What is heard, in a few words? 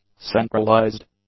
"centralized", English; adjectives